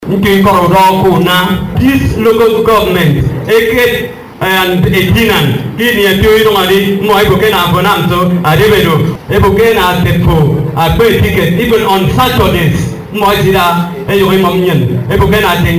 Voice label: VOX POP